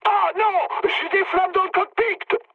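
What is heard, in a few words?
French on fire mood high; War Thunder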